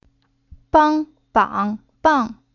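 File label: "Bang", Chinese syllable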